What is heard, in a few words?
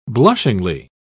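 "blushingly", British English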